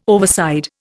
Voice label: "overside", Indian